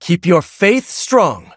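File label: level up, English; cookie run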